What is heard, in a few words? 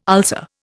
"ulcer", Indian